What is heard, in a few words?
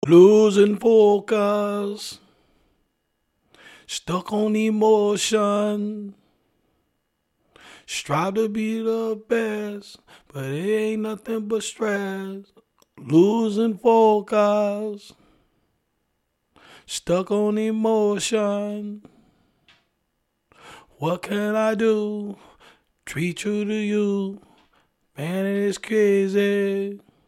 hip hop, 106, Abm, acapella